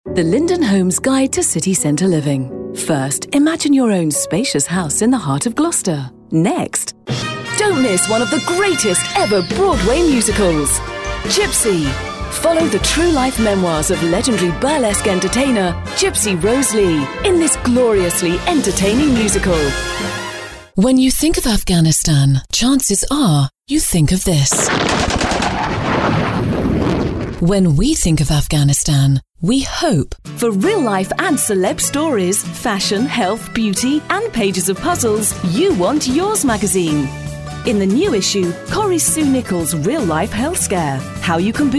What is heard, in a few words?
commercial